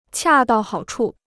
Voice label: "恰到好处", Chinese

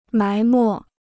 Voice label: "埋没", Chinese

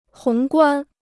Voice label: "宏观", Chinese

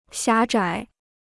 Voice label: "狭窄", Chinese

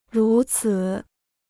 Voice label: "如此", Chinese

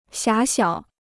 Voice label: "狭小", Chinese

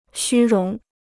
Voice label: "虚荣", Chinese

